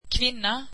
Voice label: swedish woman